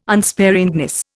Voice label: "unsparingness", Indian